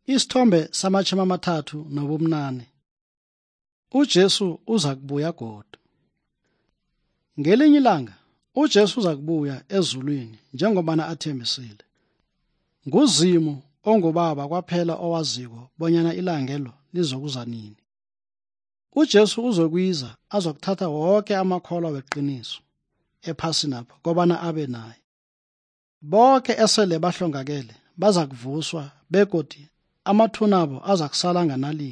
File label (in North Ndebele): good news ndebele southern, drama